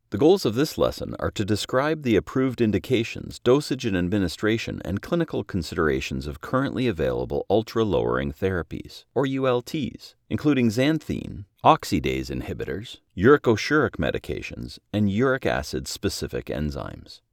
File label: Medical E-Learning